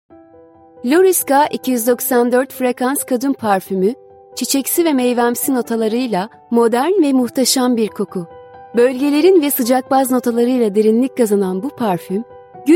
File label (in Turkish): muzikli, Turkish, Female